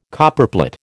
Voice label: "Copperplate", American